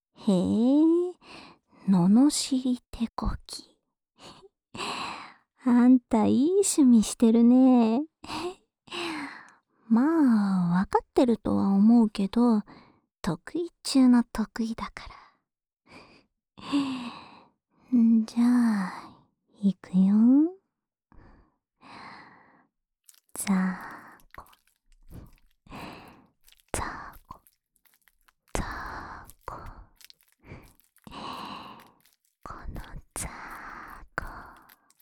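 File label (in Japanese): 罵り手コキ（左）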